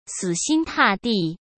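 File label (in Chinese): "死心塌地", Mandarin Chinese; hsk; chinese-flashcards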